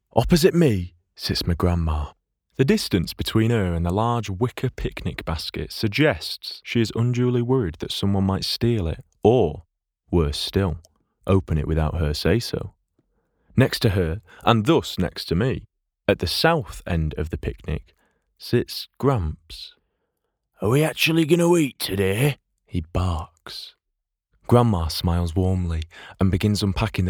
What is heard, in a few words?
audiobook reel